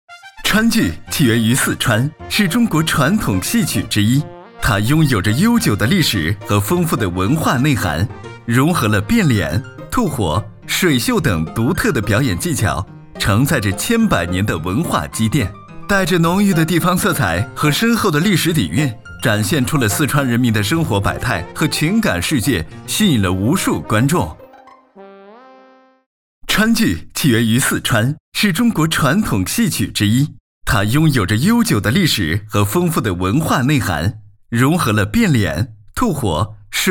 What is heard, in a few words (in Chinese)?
男 中文 mg动画类 年轻诙谐